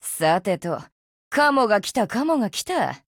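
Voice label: MEET Japanese; ColtWalker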